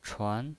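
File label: "chuan", Mandarin Chinese pinyin voice, second tone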